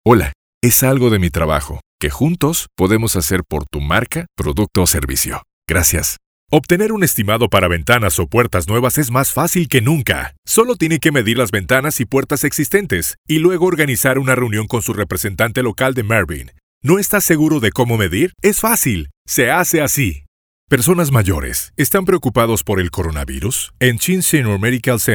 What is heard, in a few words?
spanish latin american commercial